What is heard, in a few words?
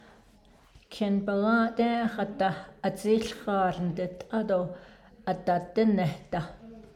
navajo; conjunction